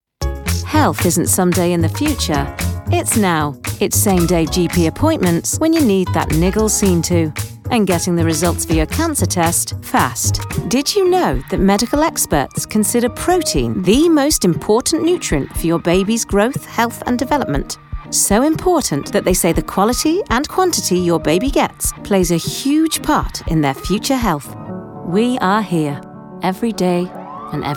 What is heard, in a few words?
commercial